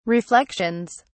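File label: "reflections", English, uk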